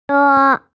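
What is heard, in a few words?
"ne1", Mandarin Chinese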